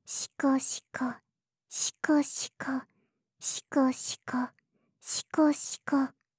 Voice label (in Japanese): シコシコオンリー ふつう